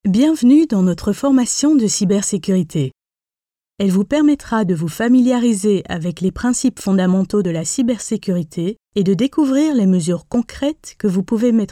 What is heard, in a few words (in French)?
e-learning fr; non-binaire; Artiste voix-off